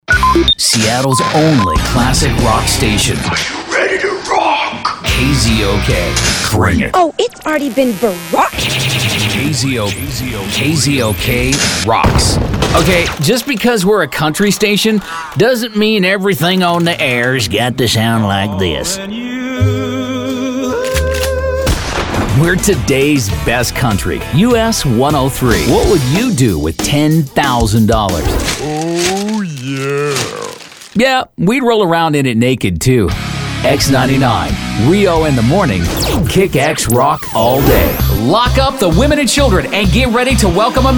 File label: Radio Imaging